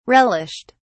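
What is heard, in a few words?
"relished", British English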